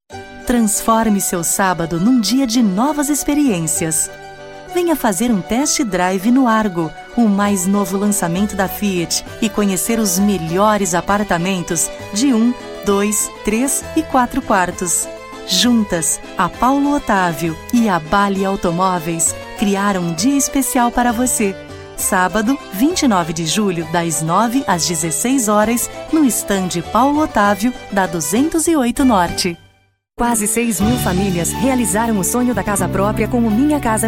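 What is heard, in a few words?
female, Brazilian Portuguese, commercial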